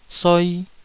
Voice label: "soi2", Taiwanese syllable

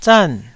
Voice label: "zan4", taiwanese Syllables

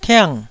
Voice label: "tiang4", Taiwanese

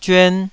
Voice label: "zyuan2", taiwanese

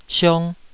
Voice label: "siong2", Taiwanese

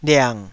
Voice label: "liang3", taiwanese syllable

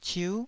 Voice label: "ciu9", Taiwanese

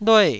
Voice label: "lue4", Taiwanese syllable

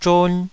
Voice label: "zhon2", Taiwanese syllable

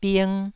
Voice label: "bing1", taiwanese syllable

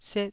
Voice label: "set4", taiwanese Syllables

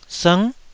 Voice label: "sng9", Taiwanese syllable

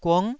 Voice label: "gung9", taiwanese syllable

